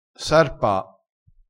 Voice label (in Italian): "sarpa", crespinese